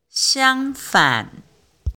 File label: chinese pronunciation an ang